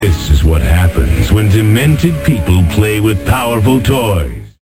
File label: demented